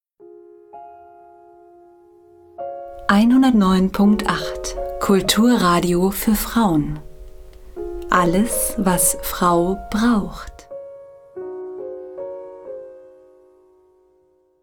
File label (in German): Stationvoice Kulturradio